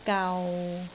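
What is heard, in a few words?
"gau6", Cantonese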